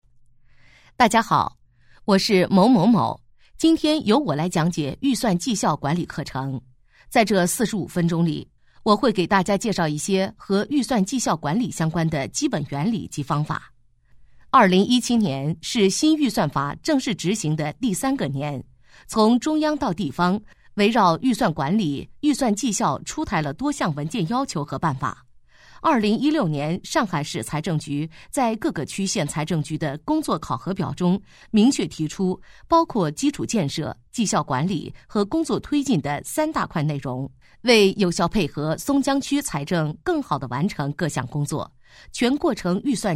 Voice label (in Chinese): 多媒体 PPT幻灯片 PPT预算绩效管理课程